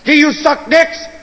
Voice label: chat; sgthartmann

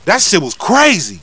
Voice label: Cheer; Lamar Davis

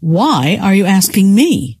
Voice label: why asking me, scientist female

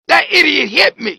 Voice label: "im hit"

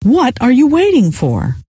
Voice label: what are you waiting, scientist female

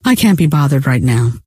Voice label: cant be bothered, scientist female

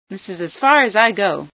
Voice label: stop, female sci

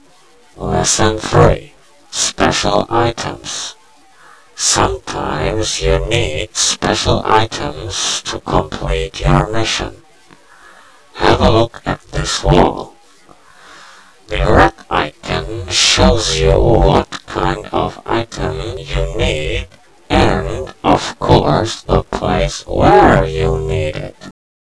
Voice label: holo less, tutorial; blackops

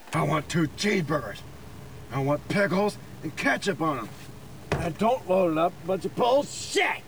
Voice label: Chat

